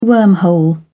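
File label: "wormhole", British English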